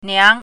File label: "niang2", Chinese pinyin